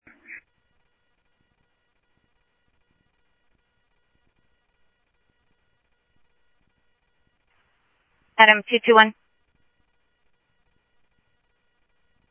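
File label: Lakes Sheriff Lake Patrol, scanner audio trunk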